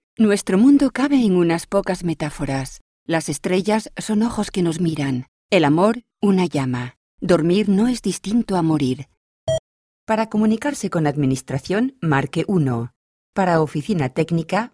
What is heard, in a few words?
spanish female